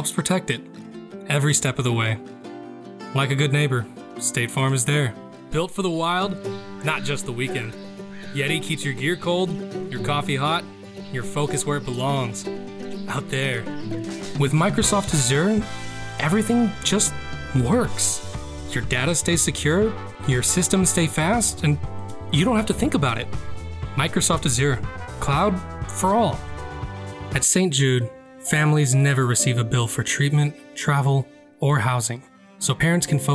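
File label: commercial voice-over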